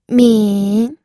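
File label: "min", third tone, pinyin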